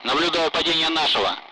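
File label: Speech, Russian; Allied Flight; member down; DCS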